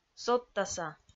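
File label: "содтаса", Komi-Zyrian, female; kpv audio dict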